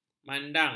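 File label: "mandang", Malay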